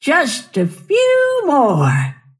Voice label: lasthit pain; snapfire